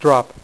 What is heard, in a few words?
DROP, Dealer's Speech; PowerPOKER Demo